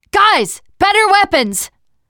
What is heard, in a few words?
spot weapons, teengirl, voice; player; survivor